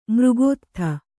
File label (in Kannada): "ಮೃಗೋತ್ಥ", Kannada